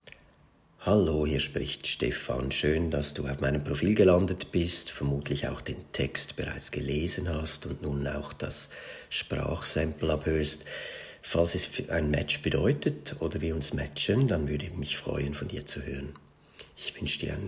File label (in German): Escort Mann Audiovorstellung deutsch